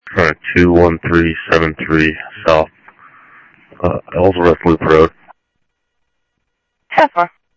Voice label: Sheriff, scanner audio; ThompsonPeakScot; Sheriff-EastCnty; MCSO - EAST